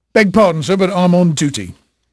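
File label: English male, voice; Pirates Of The Caribbean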